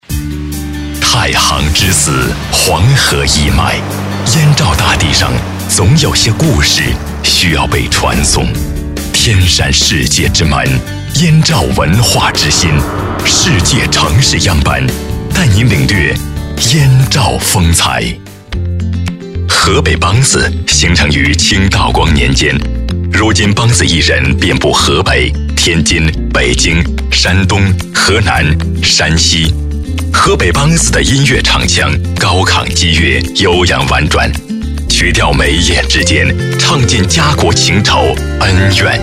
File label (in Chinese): 男 专题